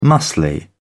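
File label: "muscled", British English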